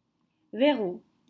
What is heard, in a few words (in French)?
"Verrous", French